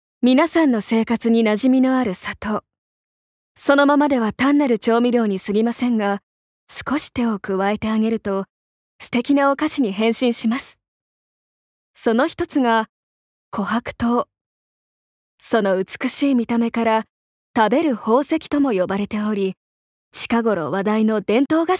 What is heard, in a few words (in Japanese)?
ナレーション